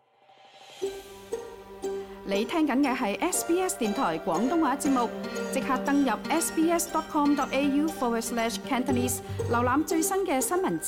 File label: cantonese newsflash; 維州公共交通1月1日起加價 兩年半上漲三次; SBS Chinese